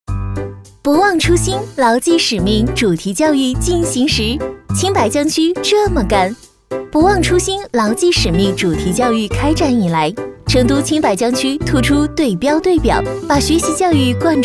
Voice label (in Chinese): 女; 飞碟; 青白江区